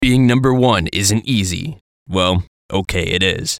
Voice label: VOICE COMICAL; Funny Sound; Radio Voice; Production Element, Imaging Element, Accent, Transition; Blastwave